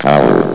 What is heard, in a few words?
"power", vox